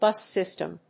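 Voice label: "BUS SYSTEM", English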